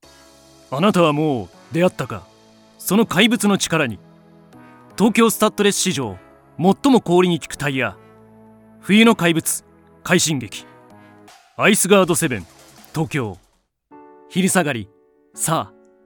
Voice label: Commercials